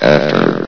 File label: "after", vox; source engine; hl1; video games